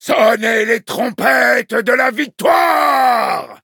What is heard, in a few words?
victory, fr; cookie run